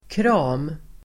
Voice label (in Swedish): "kram", Swedish